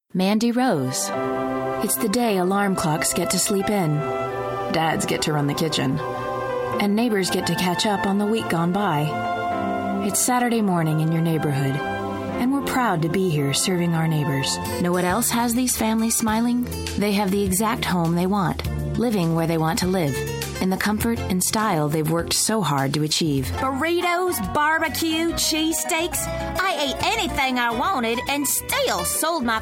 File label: Commercial, Female voices